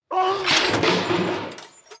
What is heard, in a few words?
Train Station Distant Man; pick N Click Scene; netent